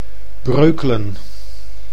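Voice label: "Breukelen", Dutch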